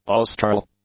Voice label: "austral", English; adjectives